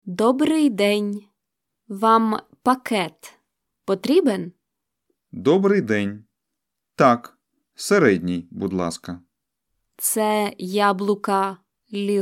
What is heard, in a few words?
Dialogue Slow